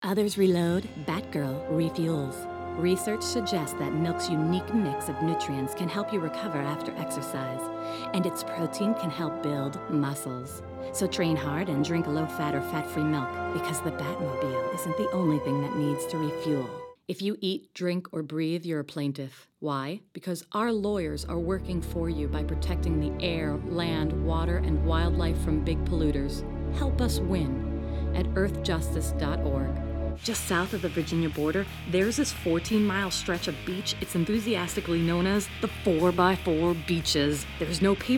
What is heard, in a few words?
Voice Over